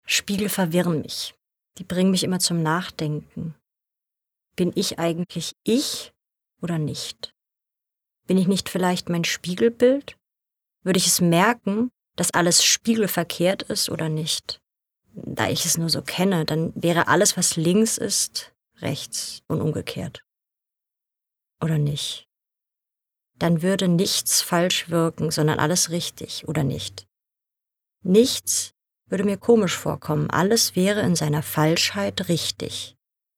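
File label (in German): Innerer Monolog; LOFT VOICES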